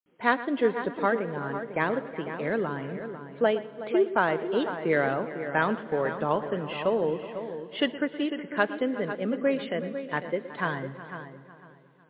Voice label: SFX Sunshine Airport PA; Mario Kart 8

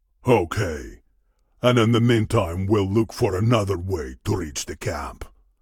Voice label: Voice Dialog; we are the dwarves gamerip 2016

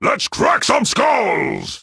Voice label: Demon Guards Taunt

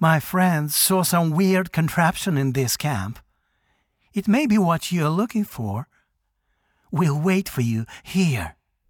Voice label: Voice Dialog

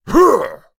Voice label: Voices Vo Male Fight Attack

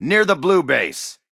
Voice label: Voices Character Male

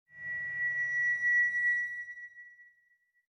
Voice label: Dial MIC Performance; Scarlet Hood and the Wicked Wood

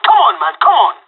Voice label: Pirate Pep talk; Counter-Strike Global Offensive; PS3 Windows Xbox 360

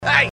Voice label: Ai Dude Scold; Dude Theft War; Android

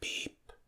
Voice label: Voices quiet; The Looker

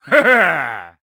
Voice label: Male Fight Appear; Mighty Party; Android

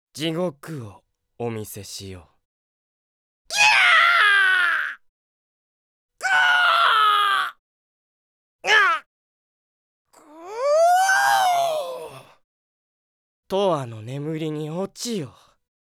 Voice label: character voice; D-XHIRD; LUCIFER

